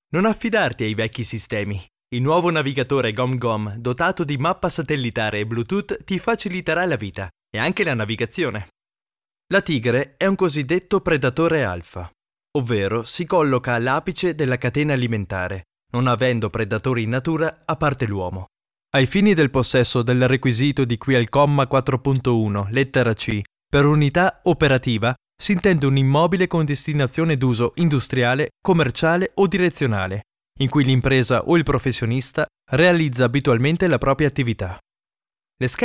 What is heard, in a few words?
Male, Italian